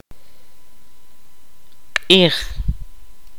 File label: day, kaqchikel